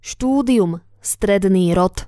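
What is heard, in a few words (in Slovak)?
"studium", Slovak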